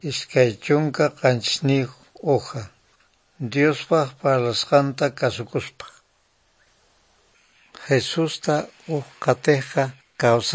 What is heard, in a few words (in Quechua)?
good news quechua norte de bolivia apolo; quechua bible